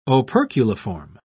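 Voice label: "operculiform", British English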